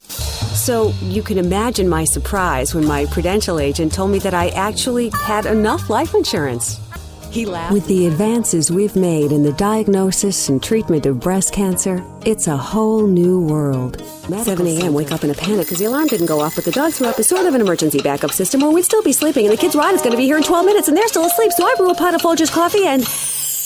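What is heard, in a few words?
English US Commercial Narration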